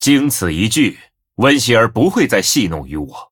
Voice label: Male Blood Elf Picked; zh